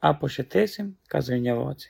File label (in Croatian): albanski; POP UP Zagreb